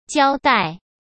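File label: "胶带", Mandarin Chinese; chinese flashcards; hsk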